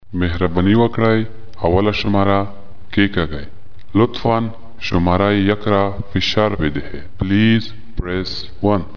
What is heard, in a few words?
ivr afghanistan